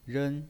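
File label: "ren", Mandarin Chinese pinyin voice, first tone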